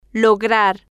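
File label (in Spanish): "lograr", Spanish, female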